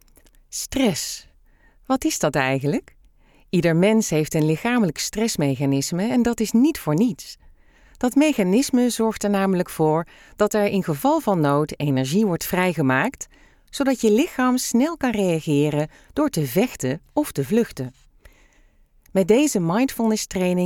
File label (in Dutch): E-Learning; Relaxt met Stress